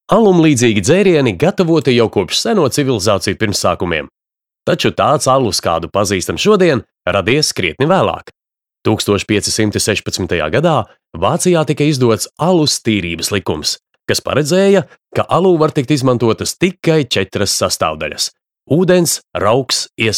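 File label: Latvian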